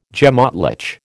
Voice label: "gemÁtlich", American

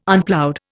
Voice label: "Unploughed", Indian

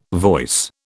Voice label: "voice", British

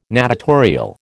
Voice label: "natatorial", American

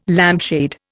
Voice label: "lampshade", Indian

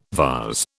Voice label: "vase", British

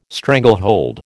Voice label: "stranglehold", American

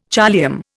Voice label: "choliamb", Indian

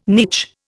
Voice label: "niche", Indian

